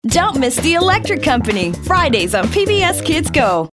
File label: upbeat